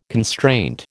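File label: "constraint", American English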